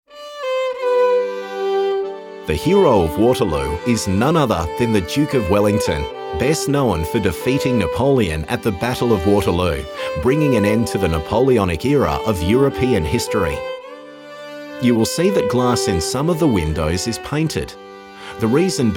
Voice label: English; Hero of Waterloo

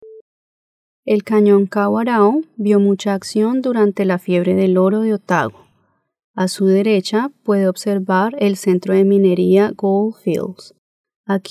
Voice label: spanish

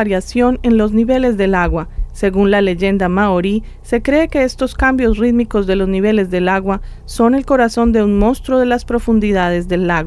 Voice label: lake wakatipu spanish

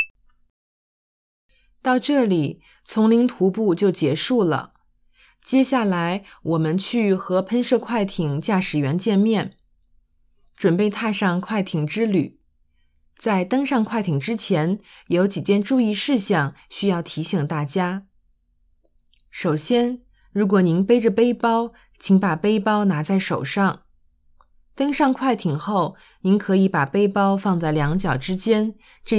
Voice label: mandarin; dartriver

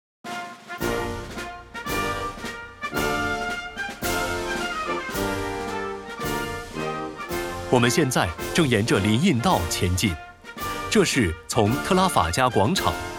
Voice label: Mandarin; Changing of the Guard

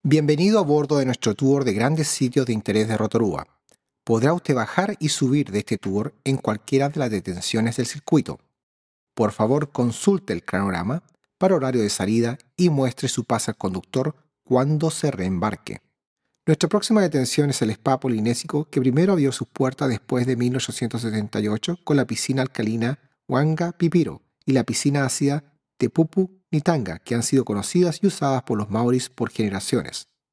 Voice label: Spanish; Rotorua Museum

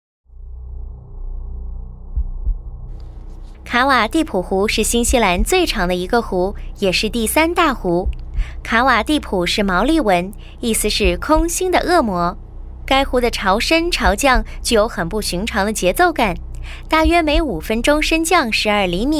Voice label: lake wakatipu mandarin

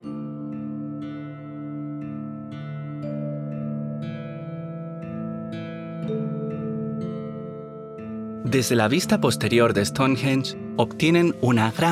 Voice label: Spanish; Stonehenge

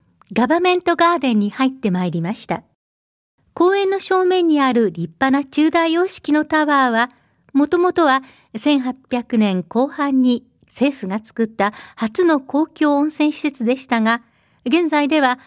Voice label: government gardens japanese

